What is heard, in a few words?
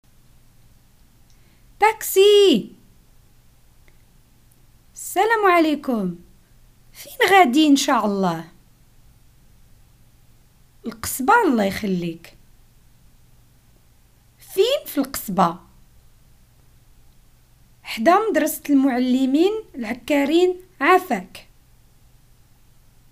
Moroccan Arabic dialogue taxi